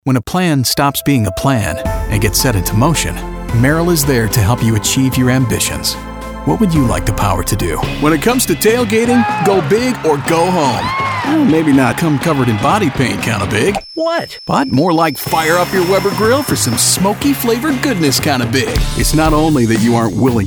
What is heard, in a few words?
men commercial